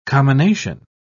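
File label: "commination", English, British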